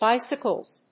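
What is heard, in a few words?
"BICYCLES", English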